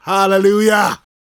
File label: preachin, gospel preacher